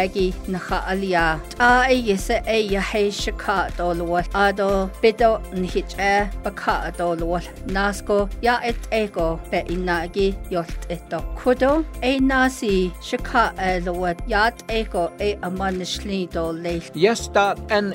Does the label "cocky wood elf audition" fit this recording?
No